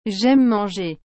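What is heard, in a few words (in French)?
"Jaime manger", French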